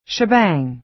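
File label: "shebang", American English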